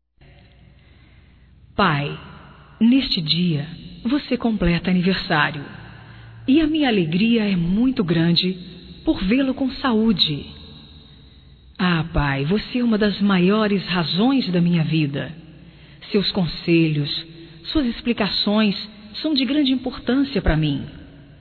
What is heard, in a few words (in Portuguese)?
PAI, Telemensagens, Aniversarios, fem; Telemensagens 2017